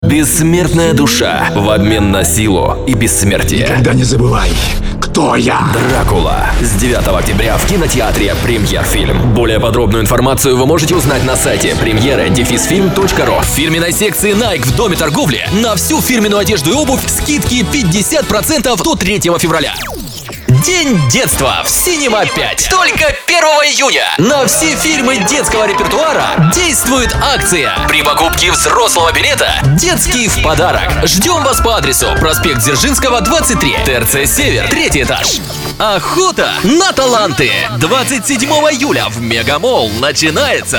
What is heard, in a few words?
Male